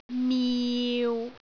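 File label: "miu6", Cantonese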